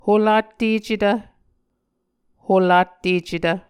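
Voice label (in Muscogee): "holattecetv", Muscogee word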